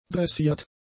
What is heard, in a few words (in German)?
"dressiert", German; adjectives